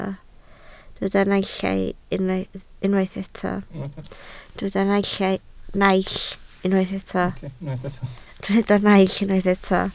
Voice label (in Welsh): "naill", Welsh